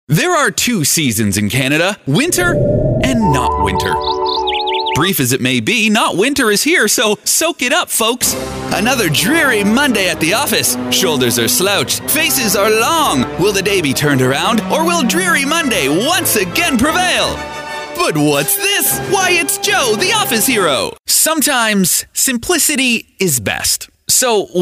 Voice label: Advertising

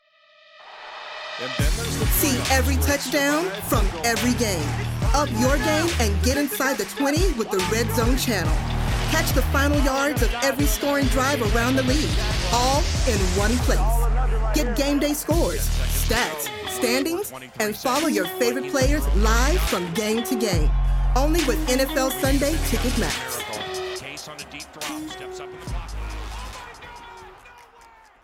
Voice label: Sports Promo

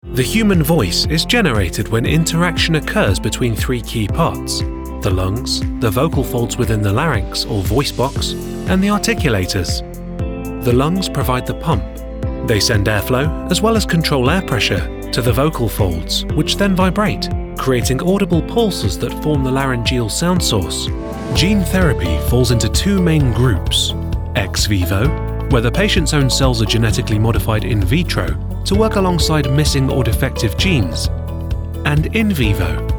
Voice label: Medical Narration

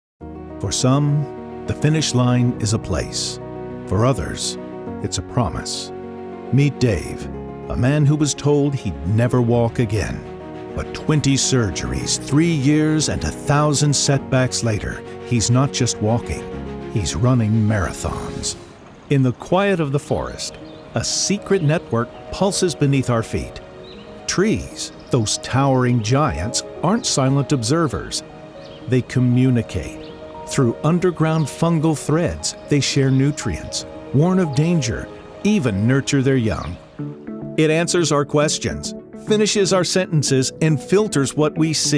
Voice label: documentary

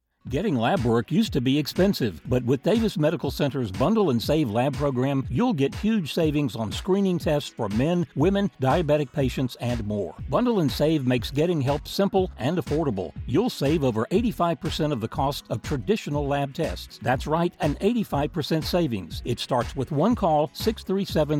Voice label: Narration Music Mix; DavisMedical

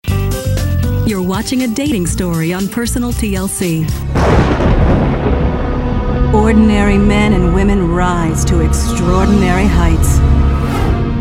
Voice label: TV Promo Demo

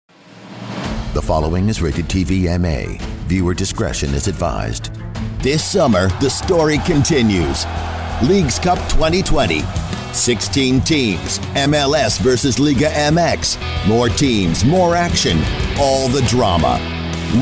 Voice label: promos

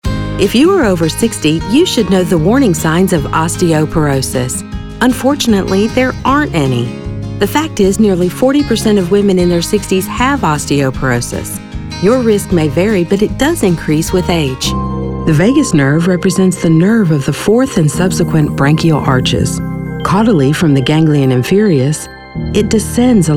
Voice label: medical narration

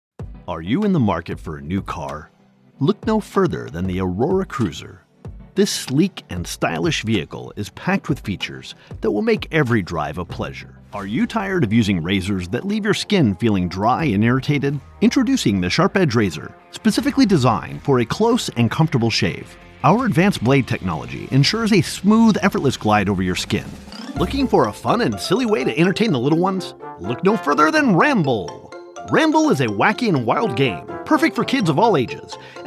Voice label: Commercial Mix

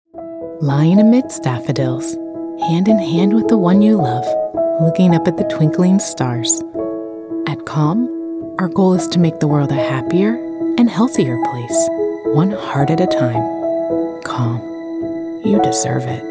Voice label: Commercial Demo Reel

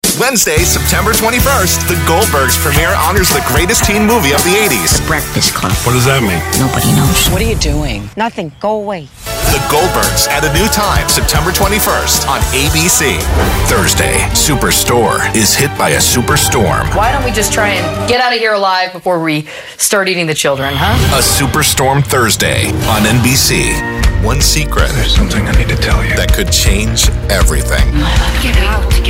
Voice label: PROMO

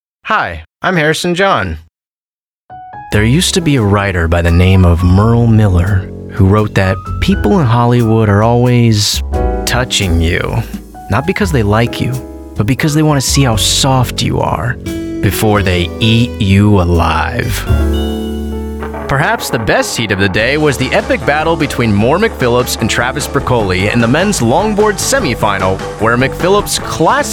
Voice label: NARRATION VO

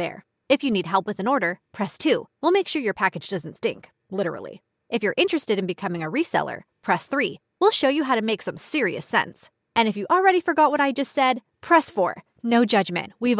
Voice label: IVR System & Voice Assistant

